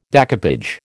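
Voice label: "découpage", American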